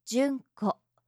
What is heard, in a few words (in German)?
"junko", japanische maedchennamen